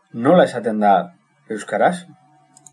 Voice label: how to say, Basque